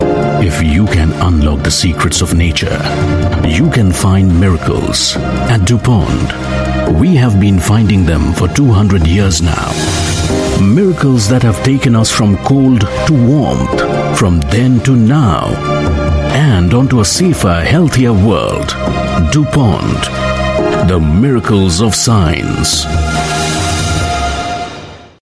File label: Indian English Commercial, male; DuPont